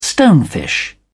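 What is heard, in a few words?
"stonefish", English, British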